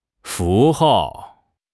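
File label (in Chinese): "符号", Chinese; HSK6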